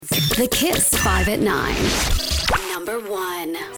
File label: Promo; EM Voices